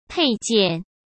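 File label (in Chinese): "配件", Mandarin Chinese; hsk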